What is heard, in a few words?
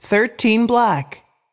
voice Over; french roulette 3